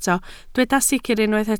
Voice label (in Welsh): "sicr", Welsh